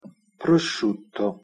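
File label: "prosciutto" Italian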